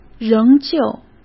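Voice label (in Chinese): "仍旧", Mandarin Chinese; chinese-flashcards; hsk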